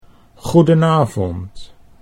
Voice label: "goedenavond", Dutch